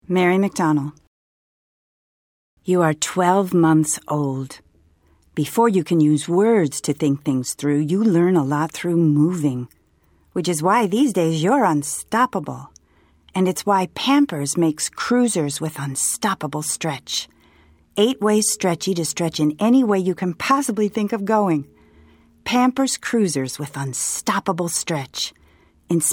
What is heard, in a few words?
commercial; Innovative LA